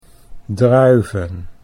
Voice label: "druiven", Dutch